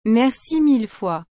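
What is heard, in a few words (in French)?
"Merci mille fois", French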